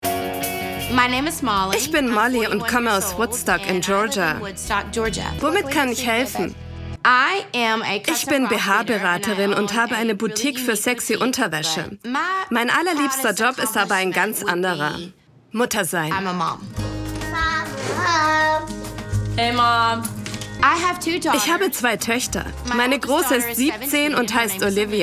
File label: voiceover